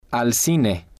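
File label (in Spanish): al Cine, spanish, male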